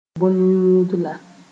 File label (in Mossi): "bõn-yũudla", moore